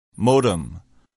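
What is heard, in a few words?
"modem", English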